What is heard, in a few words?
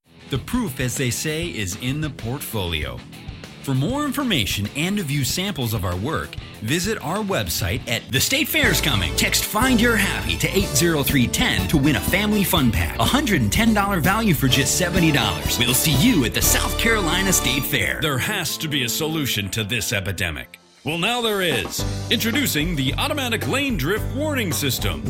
commercial vo